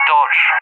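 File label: "Dodge", English, UK; CallSign; DCS; Allied Flight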